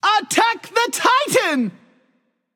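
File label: attack, male; smite; anhur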